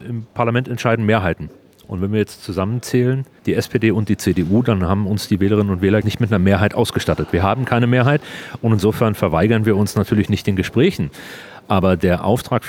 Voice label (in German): NEWS; Gordon Hoffmann; brandenburg sieht sich nicht berufen mit spd eine regierung zu bilden